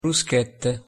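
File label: "bruschette", Italian pronunciation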